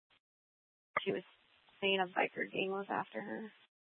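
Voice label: police department dispatch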